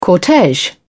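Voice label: "cortege", British English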